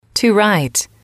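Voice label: "to write", English, female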